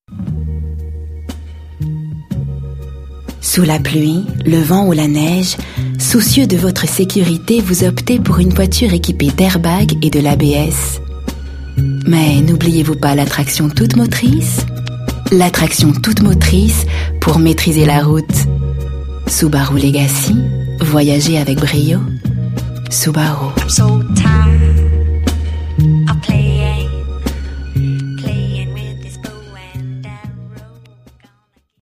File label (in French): pub, comédienne voix off; Subaru